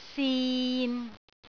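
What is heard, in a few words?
"sin6", Cantonese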